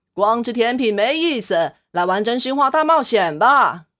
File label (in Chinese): 正太角色音 国语